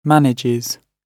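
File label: "manages", British English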